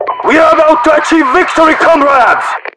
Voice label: winning, RU; mpvoice